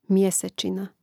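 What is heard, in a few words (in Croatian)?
"mjesecina", Croatian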